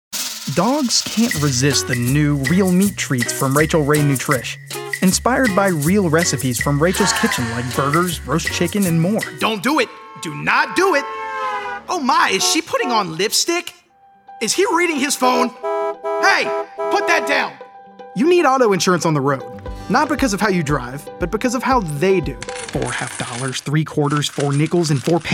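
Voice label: commercial, Voiceover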